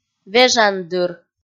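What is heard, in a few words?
"вежандыр", Komi, female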